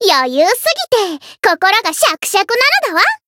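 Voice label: Voice win, Japanese; thillelille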